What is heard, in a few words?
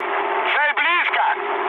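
near waypoint, mood low, Russian; War Thunder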